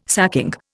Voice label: "sacking", Indian

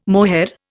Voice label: "mohair", Indian

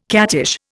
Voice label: "cattish", Indian

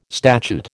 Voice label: "statute", American

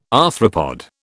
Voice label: "arthropod", British

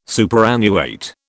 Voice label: "superannuate", British

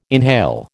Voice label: "inhale", American

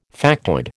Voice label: "factoid", American

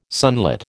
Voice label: "sunlit", American

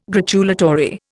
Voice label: "gratulatory", Indian

